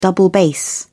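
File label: "double bass", British English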